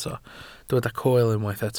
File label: "coel", welsh